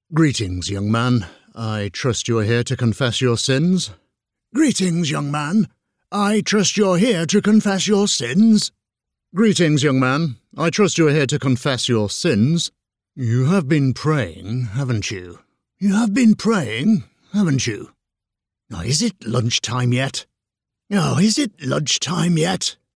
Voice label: voiceover audioguide character old man multi; audioguides